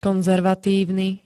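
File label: "konzervatívny", Slovak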